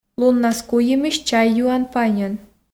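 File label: Komi sentences, female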